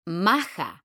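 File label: "maja", Spanish, female; mekanta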